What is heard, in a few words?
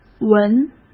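"闻", Mandarin Chinese; chinese flashcards; hsk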